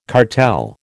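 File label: "cartel", American English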